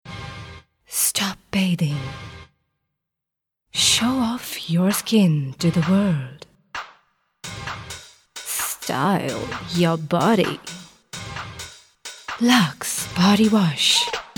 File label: eng, female voice